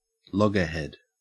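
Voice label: "loggerhead", Australian English; pronunciations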